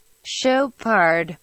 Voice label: "Chopard" Pronunciation